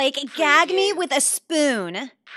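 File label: Teen Girl Says Gag Me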